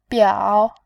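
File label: "biao", Mandarin Chinese, tone 3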